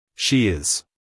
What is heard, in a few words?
"She is" us male; everyone-can-use-english